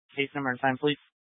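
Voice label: Police Dispatch, Grand Junction, Mesa County, Colorado; Police 1 Dispatch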